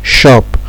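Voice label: "shop", Nigerian Pidgin, male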